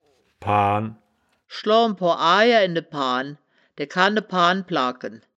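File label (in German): "paan", Wittlicher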